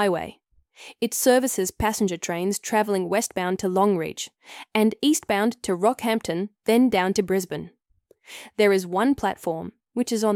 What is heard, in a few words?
Station map audio description